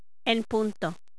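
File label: "en punto", Spanish; Time Instructor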